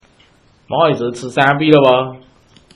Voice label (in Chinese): mj chi, man